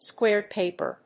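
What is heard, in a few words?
"squared paper", English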